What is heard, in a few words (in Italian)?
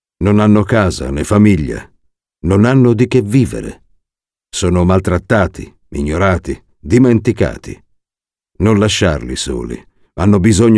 Comunicati